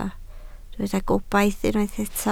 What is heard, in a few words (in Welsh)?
"gobaith", Welsh